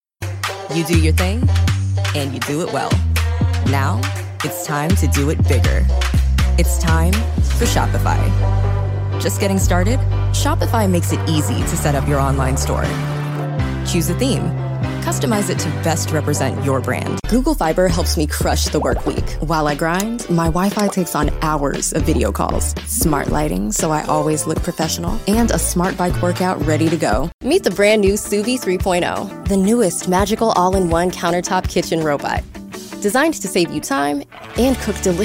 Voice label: african-american voice over actor; Black Voice Actresses